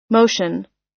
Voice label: "motion", us